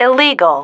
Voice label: "illegal", female